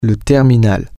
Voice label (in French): "the terminal", French